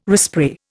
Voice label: "respray", Indian

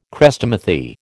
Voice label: "Chrestomathy", American

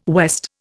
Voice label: "west", Indian

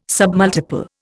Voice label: "submultiple", Indian

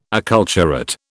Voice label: "acculturate", British

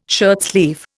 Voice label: "shirtsleeve", Indian

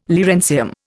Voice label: "lawrencium", Indian

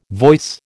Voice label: "voice", American

